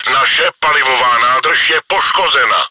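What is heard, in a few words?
fuel tank damaged, чешской озвучки; Czech; World of Tanks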